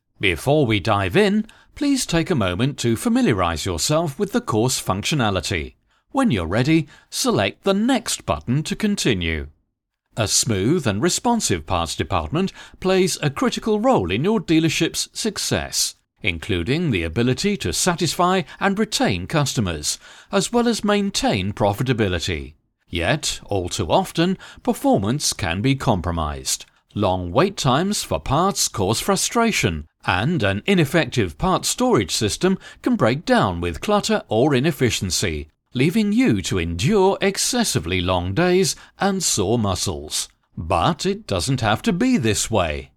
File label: man british